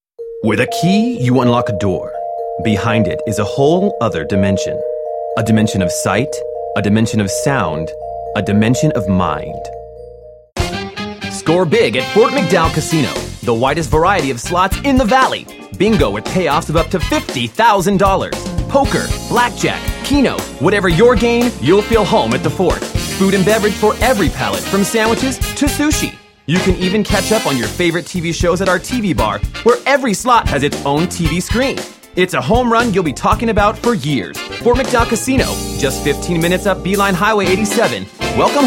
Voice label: voiceover male